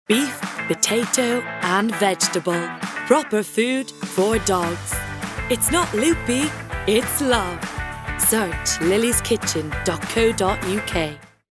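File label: English commercial Irish; GoLocalise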